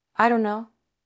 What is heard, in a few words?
Voice Acting es